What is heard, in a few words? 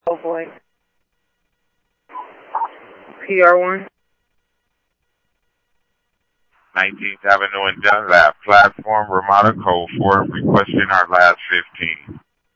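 Light Rail Security Light Rail, scanner audio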